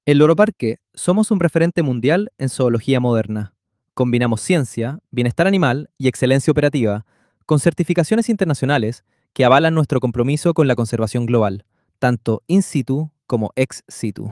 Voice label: young student; Joven estudiante